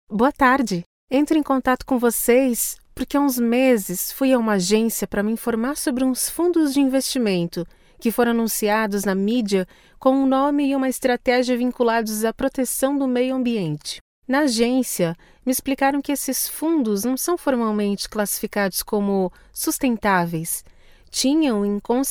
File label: locutora brasil brazilian voiceover; locutora de Brasil